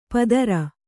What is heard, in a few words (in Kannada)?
"ಪದರ", Kannada